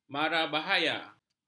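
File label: "marabahaya", Malay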